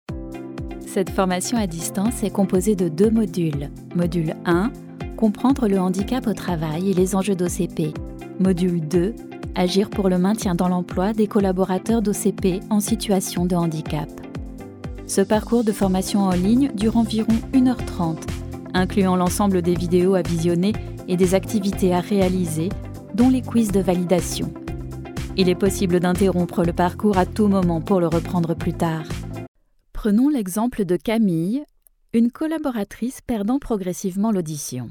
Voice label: e learning